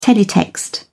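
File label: "teletext", British English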